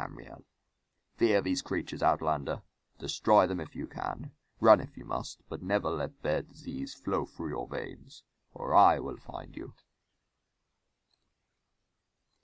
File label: dark elf proper